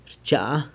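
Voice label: "rchaa", I warm, San Lucas Quiaviní Zapotec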